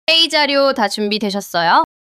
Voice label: neutral

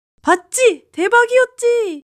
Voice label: excitement

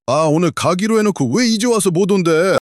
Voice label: anger

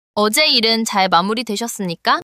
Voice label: neutral

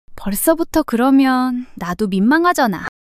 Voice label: shy

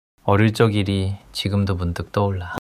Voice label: sadness

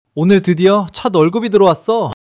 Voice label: excitement

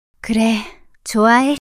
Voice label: shy

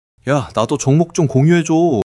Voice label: excitement

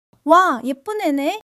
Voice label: joy

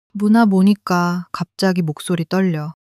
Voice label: sadness

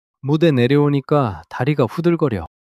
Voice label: fluster

